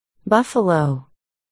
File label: "buffalo", articulation